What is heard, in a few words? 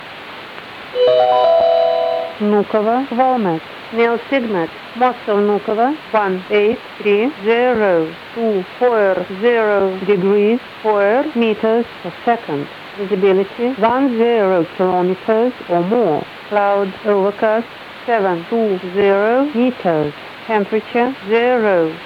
volmet 127.875; uuww